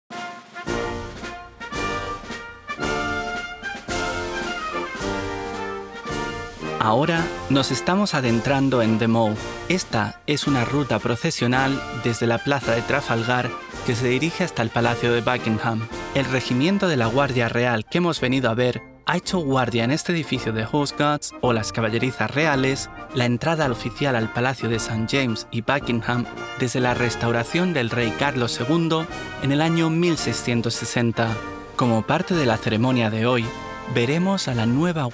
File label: Spanish; Changing of the Guard